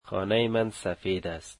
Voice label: my house is white, dari